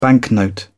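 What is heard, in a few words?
"banknote", British English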